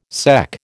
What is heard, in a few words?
"sec", American